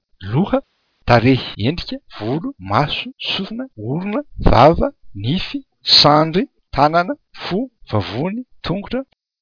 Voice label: malagasy body